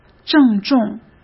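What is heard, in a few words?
"郑重", Mandarin Chinese; chinese-flashcards; hsk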